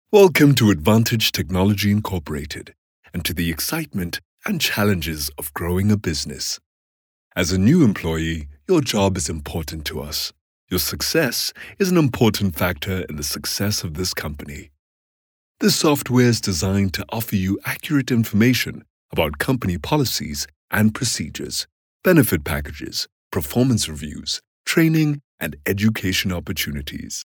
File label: English South African, Male